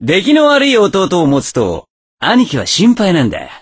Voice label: voice, dubbing